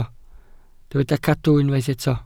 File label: "cadw", Welsh